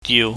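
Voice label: "diu", Cantonese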